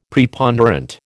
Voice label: "Preponderant", American

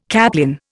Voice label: "caplin", Indian

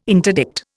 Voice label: "interdict", Indian

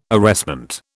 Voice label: "arrestment", British

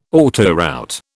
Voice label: "autoroute", British

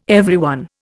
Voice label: "everyone", Indian

